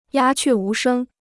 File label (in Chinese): "鸦雀无声", Chinese